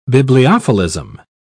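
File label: "bibliophilism", British English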